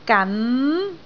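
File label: "gan2", Cantonese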